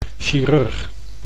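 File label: "chirurg", Dutch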